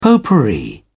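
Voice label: "potpourri", British English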